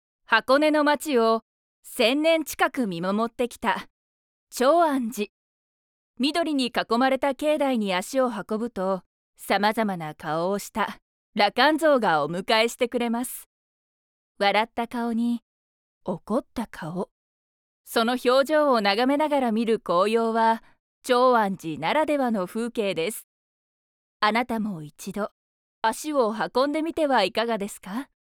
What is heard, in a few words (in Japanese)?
ナレーション